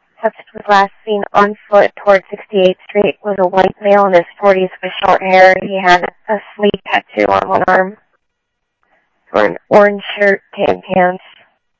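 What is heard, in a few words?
police department, scanner audio trunk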